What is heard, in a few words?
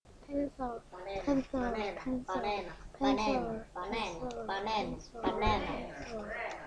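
"pencil", spoken word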